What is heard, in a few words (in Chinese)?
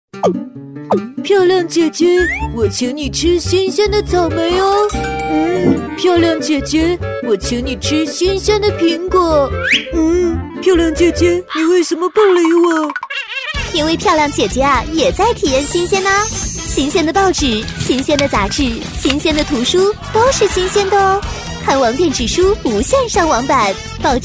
女, 模仿, 可爱; 幽默搞怪; 蜡笔小新